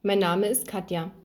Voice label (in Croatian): njemački; POP UP Zagreb